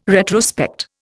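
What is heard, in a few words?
"retrospect", Indian English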